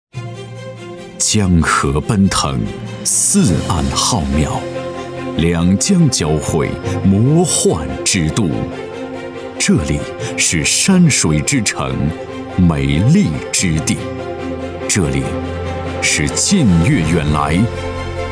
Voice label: 男 大气宣传; 悦来广场

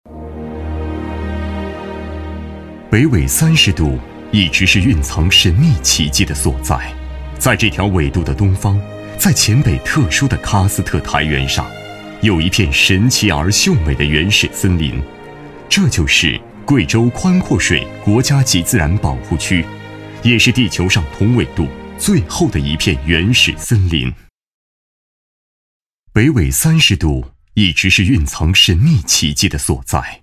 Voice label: 男 宣传片 大气沉稳

